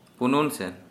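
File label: Santali male around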